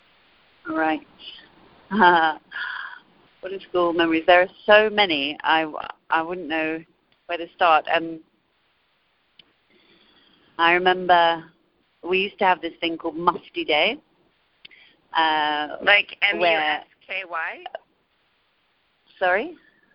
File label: RP; England